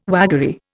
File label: "waggery", Indian